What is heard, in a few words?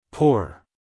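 "poor", American English, male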